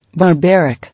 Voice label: "Barbaric", English

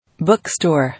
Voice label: "Bookstore", English

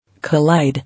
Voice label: "Collide", English

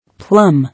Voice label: "Plum", English

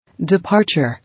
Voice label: "Departure", English

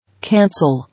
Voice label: "Cancel", English

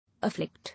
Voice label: "Afflict", English

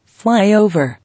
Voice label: "Flyover", English

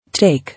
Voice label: "Take", English

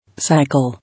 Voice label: "Cycle", English

